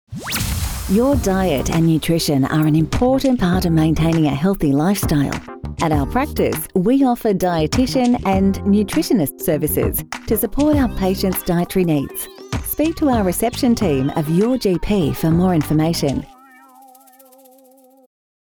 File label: english australian announcements